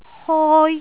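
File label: "hoi1", Cantonese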